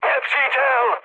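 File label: enemy detected, Czech, mood med; War Thunder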